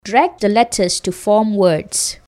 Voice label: narrator

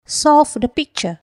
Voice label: narrator

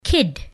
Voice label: kid

